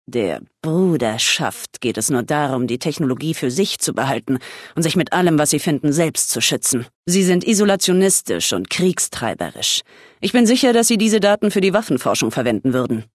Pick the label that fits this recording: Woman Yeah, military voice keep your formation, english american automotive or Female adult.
Female adult